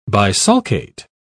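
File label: "bisulcate", British English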